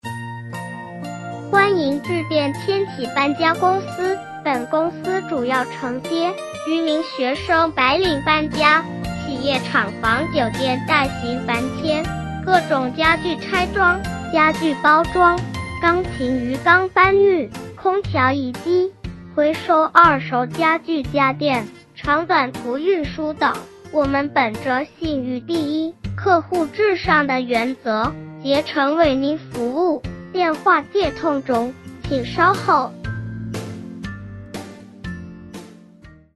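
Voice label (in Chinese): 女童干音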